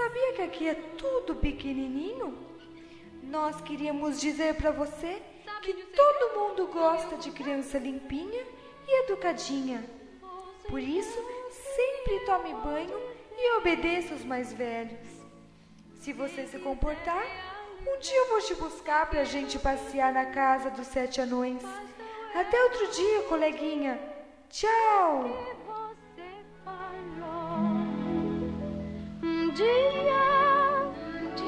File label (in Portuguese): EDUCATIVO INFANTIL BRANCA DE NEVE; Telemensagens; fem; Telemensagens 2017